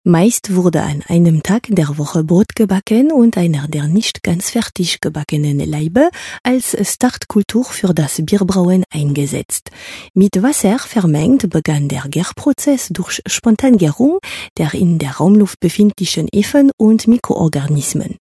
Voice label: Franzöischer Akzent auf deutsch

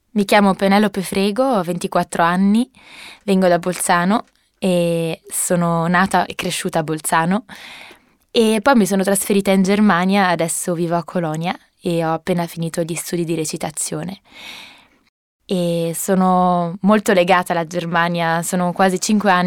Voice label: italienisch

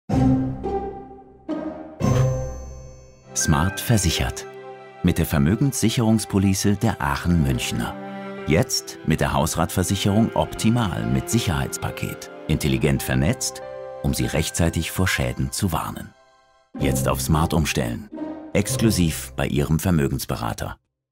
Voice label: Werbung; AachenMünchener

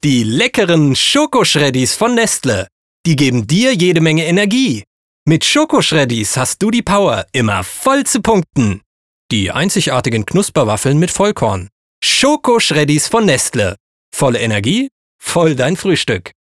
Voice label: Werbung; Nestle

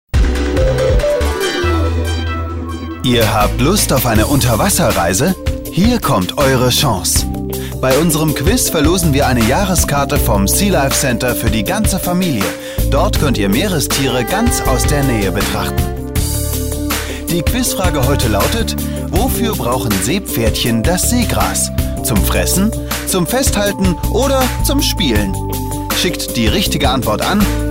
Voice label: Trailer sympathisch

